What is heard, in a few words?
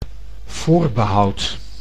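"voorbehoud", Dutch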